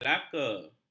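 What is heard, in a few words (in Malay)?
"melaka", Malay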